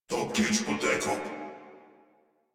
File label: Patrol Crewmen, Battle Barks; Warframe; Corpus Crewmen; Crewman C